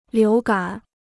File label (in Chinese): "流感", Chinese